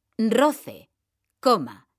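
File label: "roce coma", Spanish, female; mekanta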